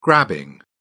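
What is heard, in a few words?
"grabbing", English, British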